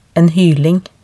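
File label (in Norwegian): "en hyling", Norwegian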